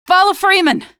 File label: squad follow, female; Half-Life 2